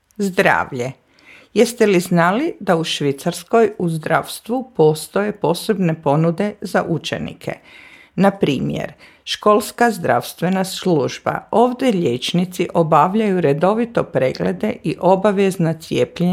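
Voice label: Croatian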